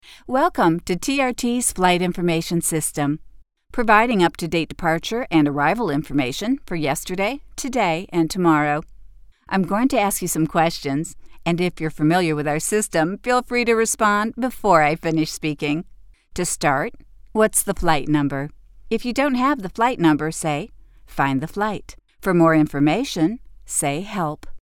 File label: IVR; TRT